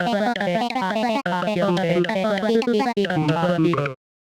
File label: medium clear jolly, voice archetypes; voxel audio preview